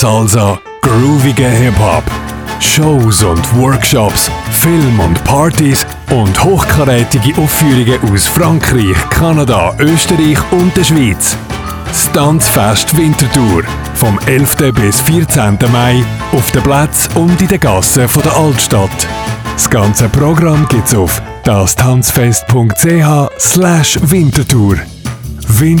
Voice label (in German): werbung radio; tanzfest winterthur; stadtfilter